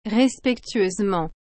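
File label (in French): "respectueusement", French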